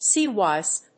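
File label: "sea wasp", English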